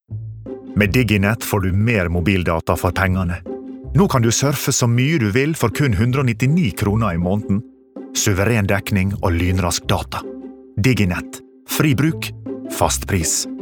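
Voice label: no commercial soft sunnmorsk, Norwegian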